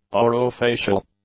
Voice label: "orofacial", English; adjectives